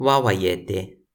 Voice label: "wawajete", Toki Pona

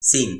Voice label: "sin", Toki Pona; lipu linku; kalama

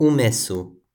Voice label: "umesu", Toki Pona

